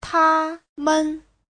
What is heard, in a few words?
"ta1men5", Chinese; 他们; họ, bọn họ